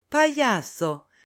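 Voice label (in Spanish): "payaso", Spanish, male; AppLenguaje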